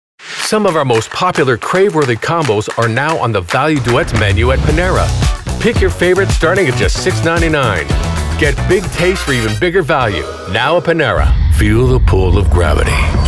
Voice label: commercials edgy cool elegant relatable sincer